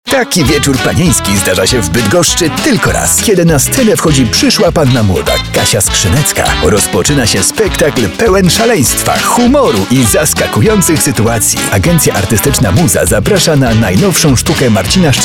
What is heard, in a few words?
polish promos